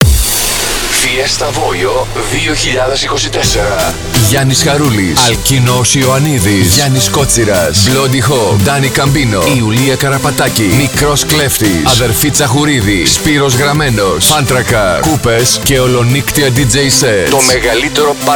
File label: radio spot; Fiesta Voio 2024